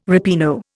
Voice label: "ripieno", Indian